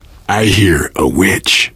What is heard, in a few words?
heard witch, survivor voice